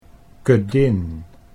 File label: "kedin", Dutch